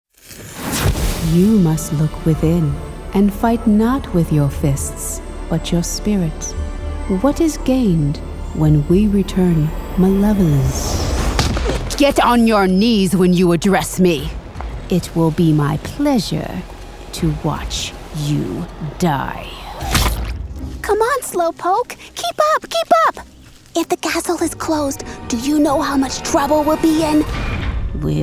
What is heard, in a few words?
Video Gaming, en-US